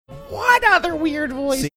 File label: saying what other weird voice funny